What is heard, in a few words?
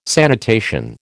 "sanitation", American